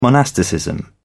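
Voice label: "monasticism", British English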